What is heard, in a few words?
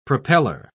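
"propellor", British English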